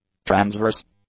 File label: "transverse", English; adjectives